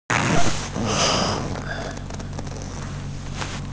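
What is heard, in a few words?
"zuo4", Mandarin Chinese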